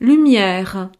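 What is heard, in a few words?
"lumiere", French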